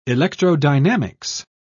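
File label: "electrodynamics", British English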